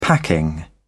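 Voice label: "packing", British English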